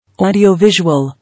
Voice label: "Audio-visual", English